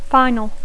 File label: "final", English